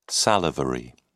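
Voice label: "salivary", British English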